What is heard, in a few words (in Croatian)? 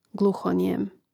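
"gluhonjem", Hrvatski; mreznik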